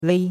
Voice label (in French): "lei1", chinois; Cours-de-Chinois